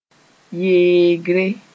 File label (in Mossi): "yeegre", moore